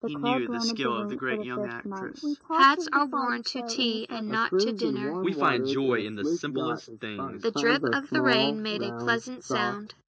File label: anechoic; SiSEC08; SiSEC headgeometry